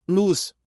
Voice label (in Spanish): Luz en portugues